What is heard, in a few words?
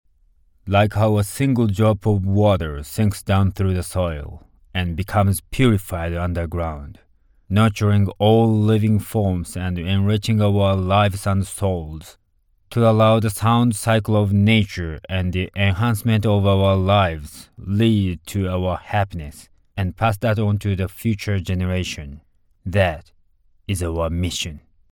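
Japanese Male English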